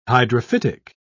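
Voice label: "hydrophytic", British English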